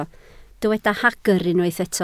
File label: "hagr", Welsh